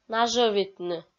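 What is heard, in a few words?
"нажӧвитны", Komi, female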